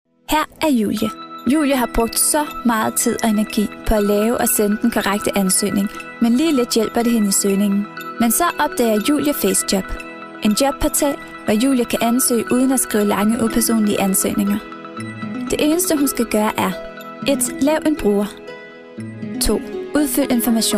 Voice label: (MOT) Danu balsas, moteris

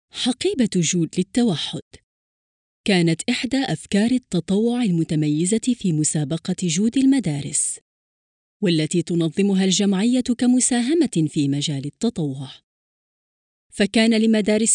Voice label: (MOT) Arabų balsas

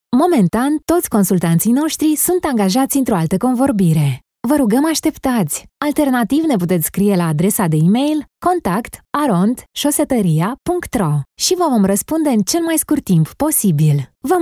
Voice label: (MOT) Rumunu balsas, moteris

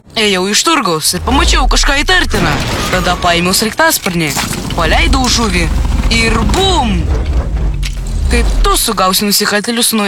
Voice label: VAIKIŠKAS 17METU, lietuviu balsai, vyras

